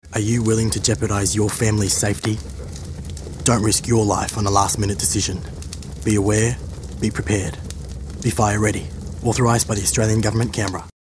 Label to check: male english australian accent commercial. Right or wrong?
Right